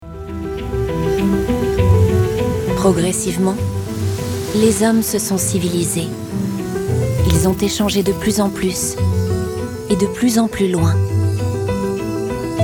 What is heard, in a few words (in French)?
NARRATION FR Mediterranee; voix-off